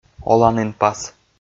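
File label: "оланінпас", Komi, male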